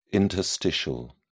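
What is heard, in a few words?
"interstitial", British English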